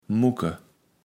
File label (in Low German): "Mucke", Platt